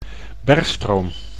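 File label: "bergstroom", Dutch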